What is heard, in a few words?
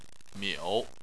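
"miu", Chinese, third tone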